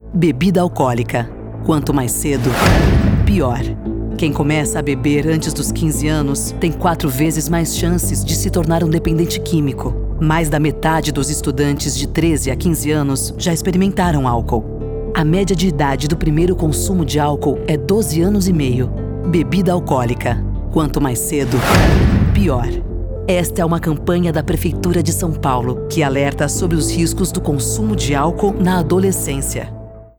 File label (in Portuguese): SPOT; PREFEITURA; ALCOOL